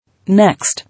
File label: "Next", English